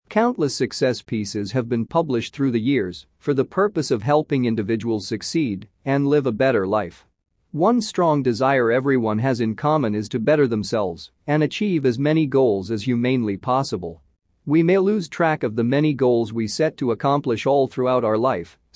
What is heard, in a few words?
Male Audio; Goal Setting